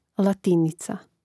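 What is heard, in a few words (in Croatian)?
"latinica", Croatian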